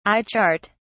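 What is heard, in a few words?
"eye chart", British English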